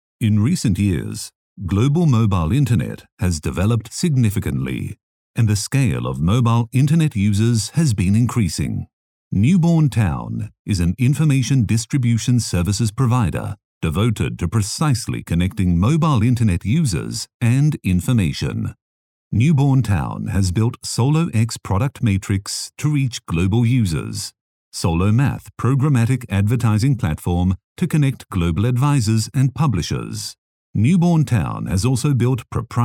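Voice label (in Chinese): 外籍英语美式口音宣传片深沉磁性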